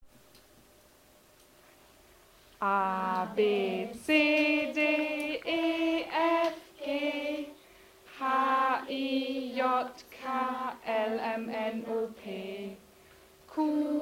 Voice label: german alphabet